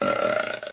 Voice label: man, tavern; Pirates Of The Caribbean; AMBIENT